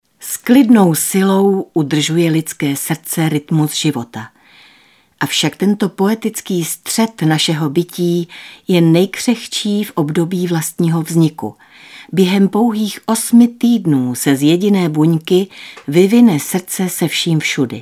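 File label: Female Czech documentary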